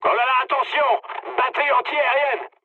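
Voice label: French damaged aa mood med; War Thunder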